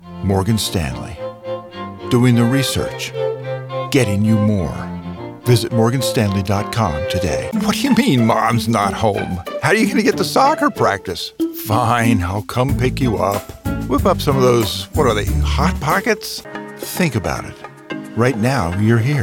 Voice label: Commercial